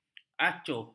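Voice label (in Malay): "acuh", Malay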